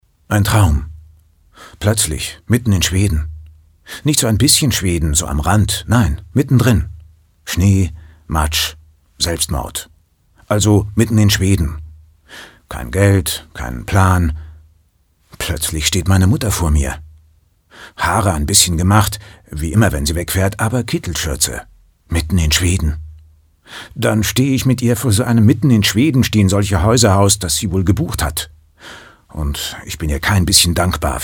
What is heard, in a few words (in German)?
Schauspiel Neutral